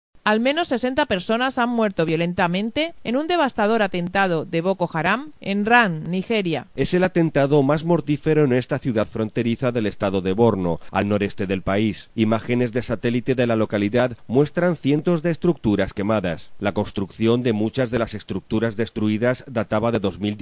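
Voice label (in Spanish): informativo; nigeria violento atentado de boko haram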